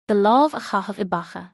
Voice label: throw in the towel irish